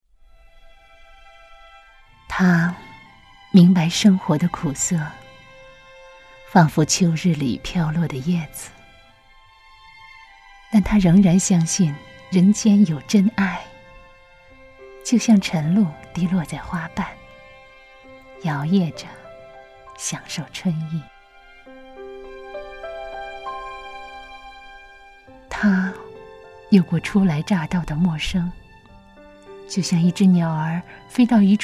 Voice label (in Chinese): 微电影 独白, 女